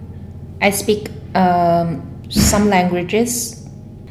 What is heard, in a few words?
interviewee female